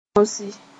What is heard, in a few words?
"tõsɩ", moore